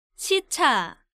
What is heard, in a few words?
"sicha", Korean